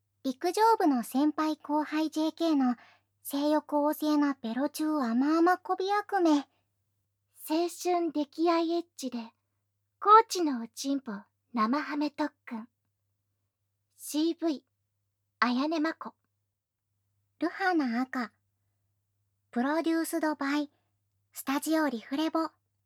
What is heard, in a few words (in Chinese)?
标题 朗读; 无SE